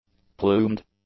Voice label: "plumed", english; adjectives